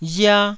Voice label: "ria1", taiwanese

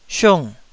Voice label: "siung4", Taiwanese

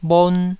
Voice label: "bhon1", taiwanese Syllables

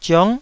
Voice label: "ziong9", Taiwanese

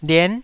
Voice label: "lien9", Taiwanese

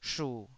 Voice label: "shu3", taiwanese

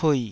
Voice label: "pui3", taiwanese

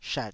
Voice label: "shat3", taiwanese Syllables

